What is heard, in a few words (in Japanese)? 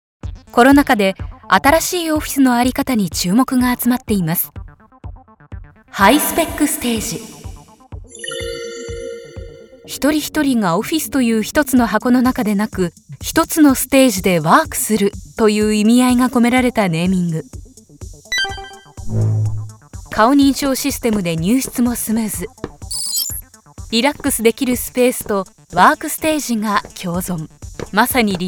ボイスサンプル 令和風ストレートナレーション レンタルオフィスCM